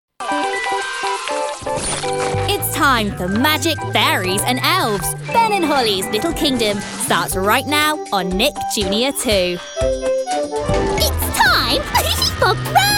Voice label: Childrens Promo

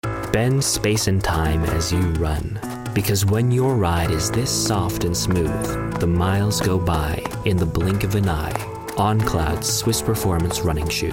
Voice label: Commercial

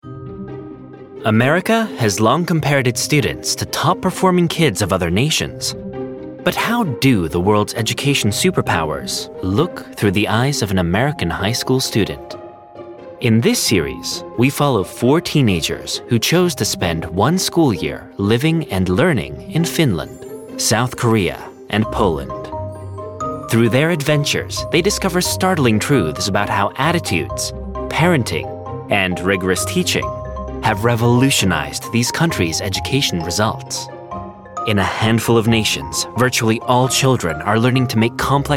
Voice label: doco

